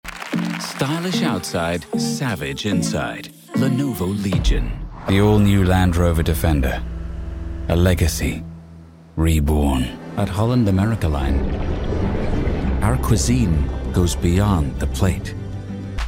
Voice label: Commercial all accents

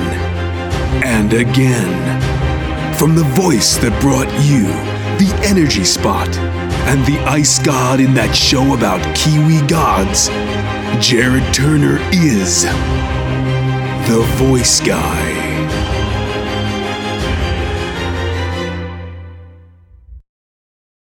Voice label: Epic Trailer; en-AU

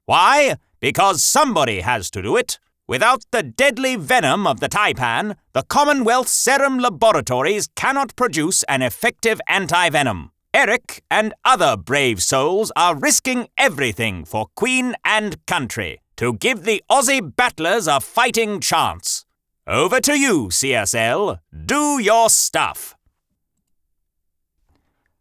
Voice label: Newscaster Reptile; en-AU

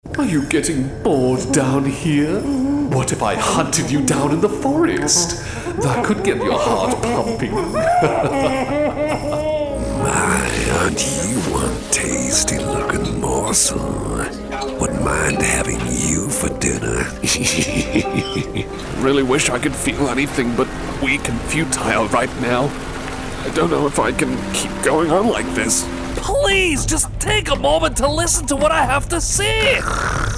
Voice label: Character

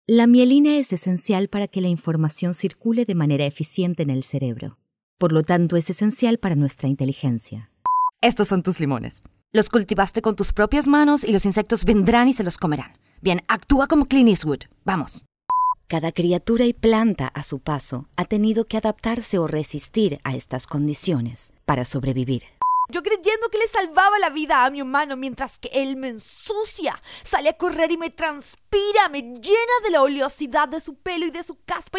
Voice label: Spanish

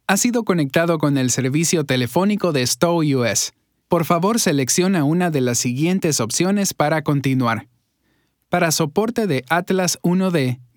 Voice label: IVR

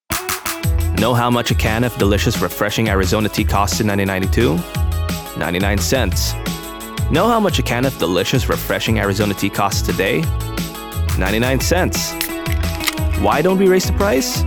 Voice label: Commercial

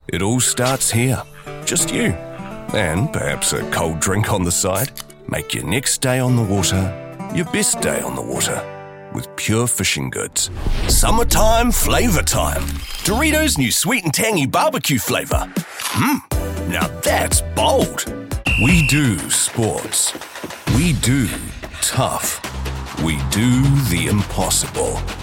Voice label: Commercial, en-NZ

